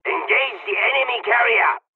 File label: bombing, czech; War Thunder